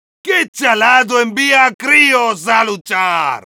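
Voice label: taunts, Spanish; ¿Qué chalado lleva críos a luchar?; Heavy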